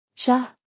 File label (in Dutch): "shaah", Somalisch; thee-in-andere-talen